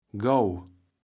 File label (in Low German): "gaw", kaevels platt